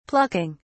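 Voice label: "plucking", British English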